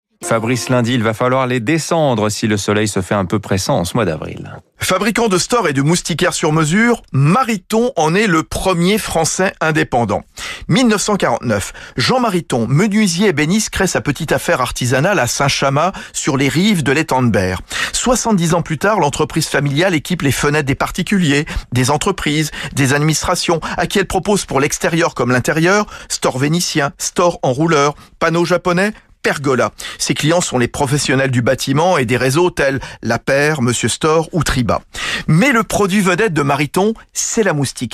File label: Radio; Radio Classique